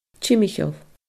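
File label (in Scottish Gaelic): "timcheall", Scottish Gaelic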